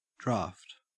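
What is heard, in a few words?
"draught", Australian English; pronunciations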